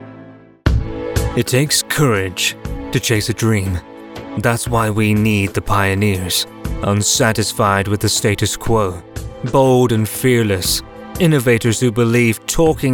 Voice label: voice over

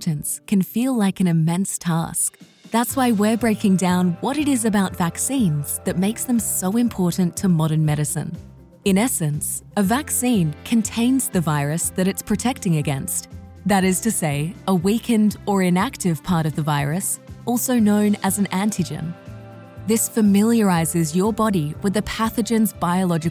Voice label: vaccination explainer

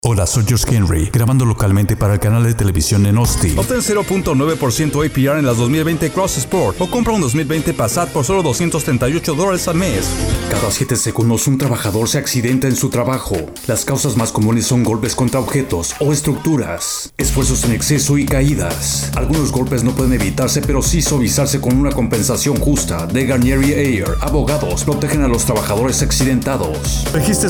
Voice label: MALE

